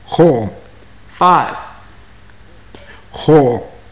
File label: numbers, maya